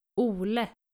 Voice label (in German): "ole", nordische jungennamen